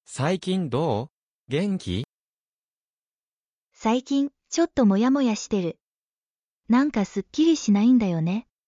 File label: anime, Natural Japanese Conversations; "How have you been?" in Japanese